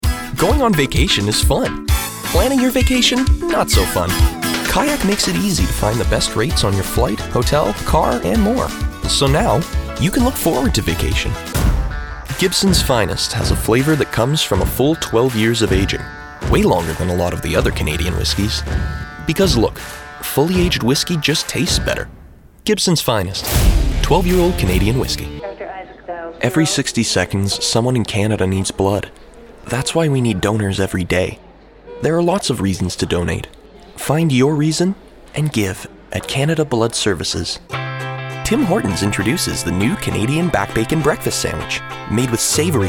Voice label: Commercial; TaDa

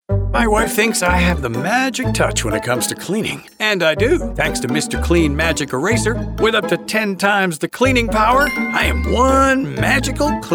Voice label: commercial

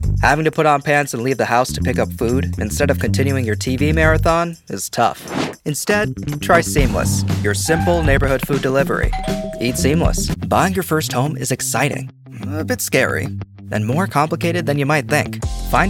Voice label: Commercial

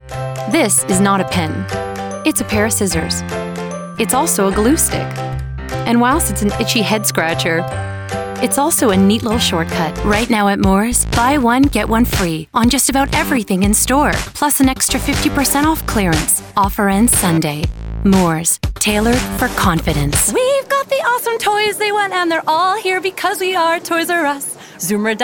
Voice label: Commercial; TaDa

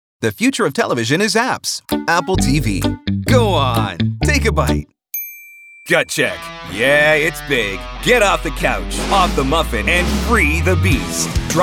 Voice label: Commercial; TaDa

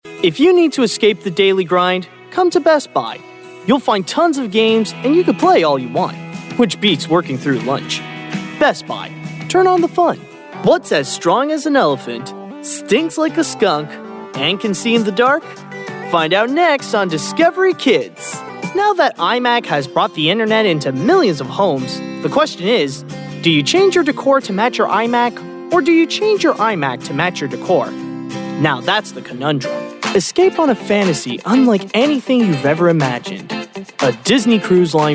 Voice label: commercial; TaDa

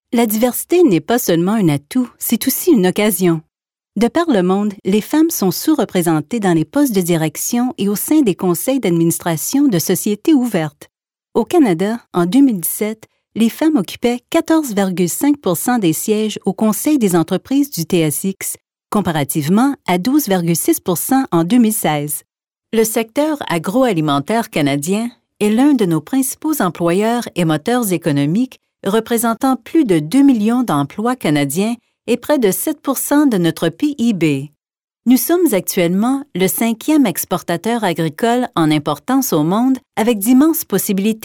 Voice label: narration French; TaDa

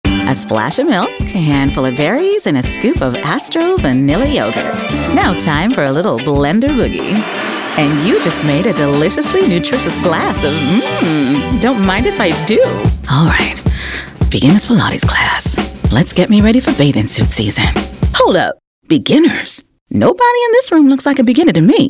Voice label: Commercial; TaDa

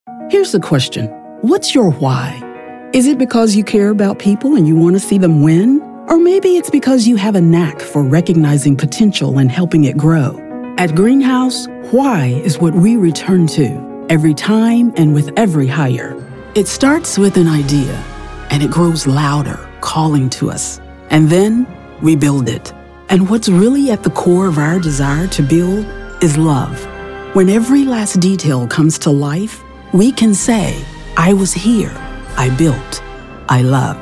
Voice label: Narration

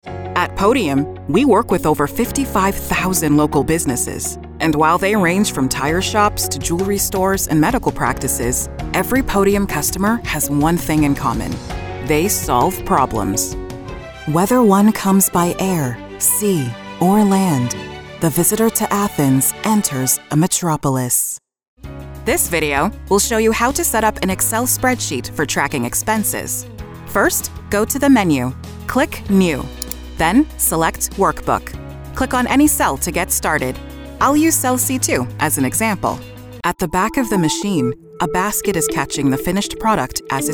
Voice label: Narration; TaDa

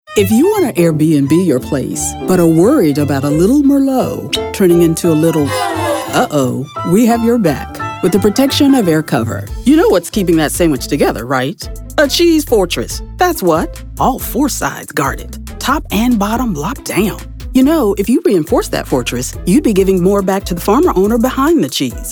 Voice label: Commercial

